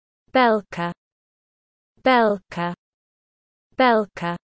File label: "Belka", russian dog names